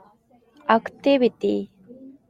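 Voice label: "activity", Japanese, female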